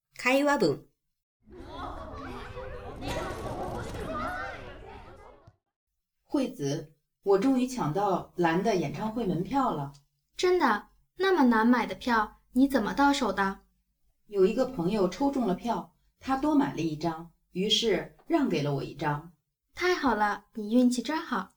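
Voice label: conversation; 会話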